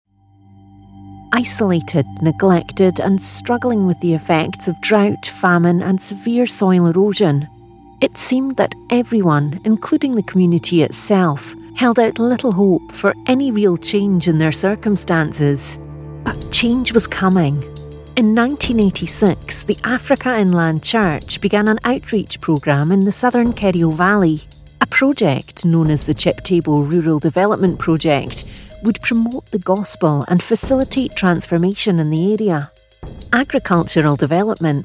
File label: documentary